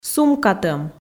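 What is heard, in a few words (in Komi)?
"сумкатӧм", Komi, female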